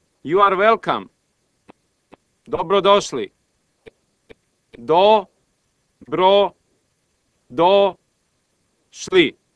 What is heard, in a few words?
Serbo Croatian Welcome